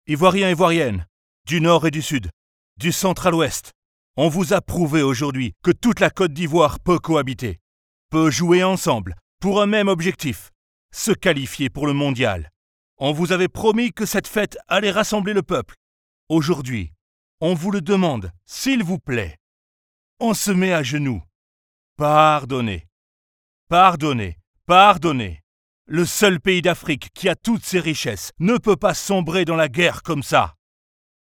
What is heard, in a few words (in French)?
Voix grave politique Africain; Drogba